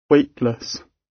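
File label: "weightless" British; Space, the planets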